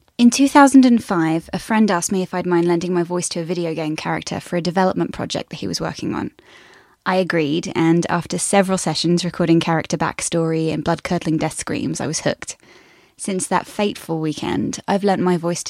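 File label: English British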